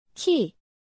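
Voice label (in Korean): "키", Korean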